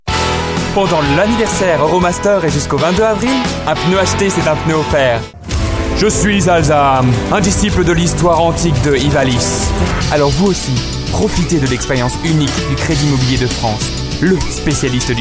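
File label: French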